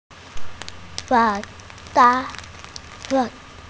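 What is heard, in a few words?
"wei3ba5", Mandarin Chinese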